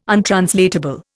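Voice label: "untranslatable", Indian English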